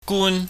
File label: "gun", chinese